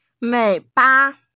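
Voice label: "wei3ba5", Mandarin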